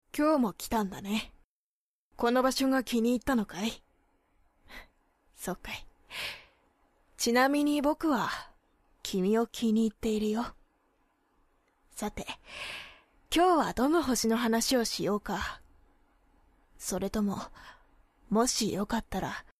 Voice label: boy; 少年; 落ち着いた少年